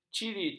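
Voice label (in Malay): "cirit", Malay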